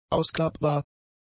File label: "ausklappbar", German; adjectives